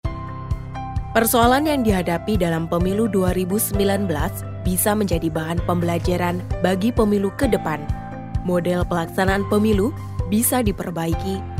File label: INDO FORMAL